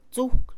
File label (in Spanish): cuchillo, Mixe, Mujer; Acciones; INALI